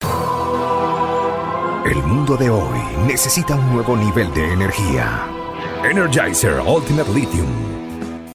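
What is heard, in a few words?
Spanish Latin America, commercial; GoLocalise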